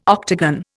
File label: "octagon", Indian